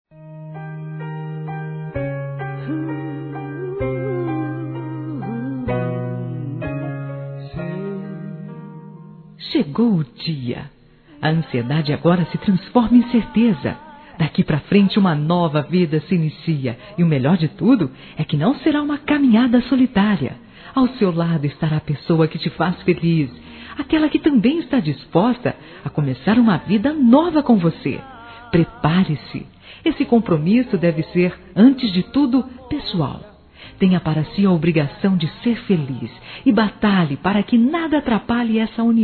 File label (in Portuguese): Casamento Feminino